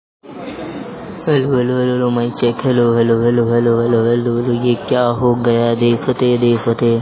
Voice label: Voice Note